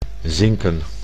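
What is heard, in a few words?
"zinken", Dutch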